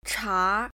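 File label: "cha", Chinese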